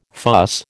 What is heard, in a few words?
"fuss", American